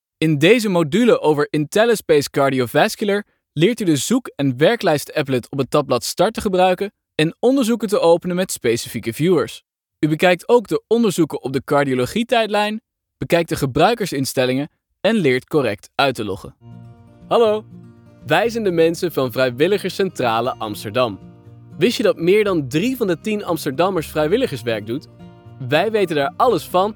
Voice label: dutch corporate videos